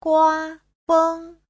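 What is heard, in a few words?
"guāfēng", Mandarin Chinese; 刮风; (động từ) nổi gió; Giáo trình chuẩn HSK3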